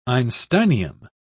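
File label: "einsteinium", British English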